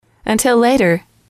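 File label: "until later", English, female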